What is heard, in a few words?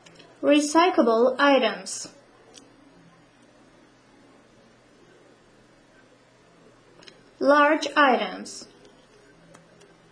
garbage english; About the rules for garbage disposal/ごみ出しのルールについて; English/英語